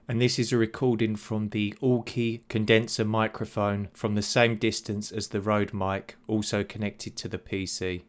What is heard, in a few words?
aukey mic